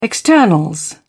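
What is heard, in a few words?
"externals", British English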